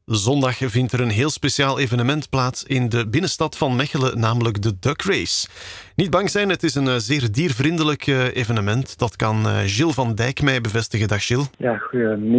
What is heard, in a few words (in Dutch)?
Interview; Duckrace